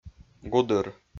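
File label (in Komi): "гудыр", Komi, male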